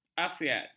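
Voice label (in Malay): "afiat", Malay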